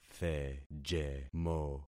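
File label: "thejemo", concatenated syllables; Affixing experiment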